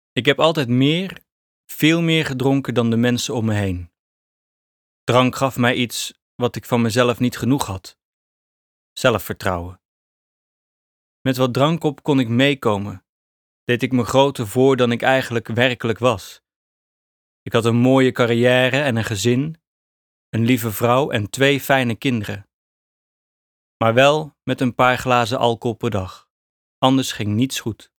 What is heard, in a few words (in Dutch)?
e-learning voorbeeld